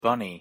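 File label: "bunny", English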